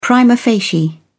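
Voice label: "prima facie", British English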